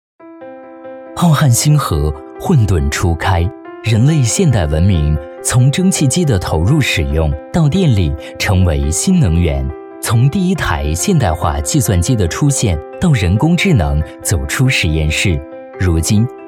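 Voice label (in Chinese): 科技感旁白年轻磁性; 申昊科技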